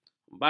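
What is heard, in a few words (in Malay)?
"bakir", Malay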